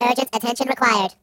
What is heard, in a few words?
"Hurry", robot voice; hedgewars